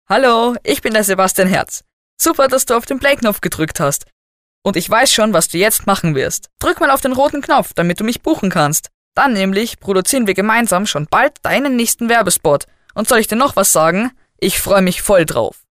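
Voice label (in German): Kinder Neutral, Kinderstimmen; kleine Profisprecher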